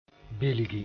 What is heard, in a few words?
"bilgi", moore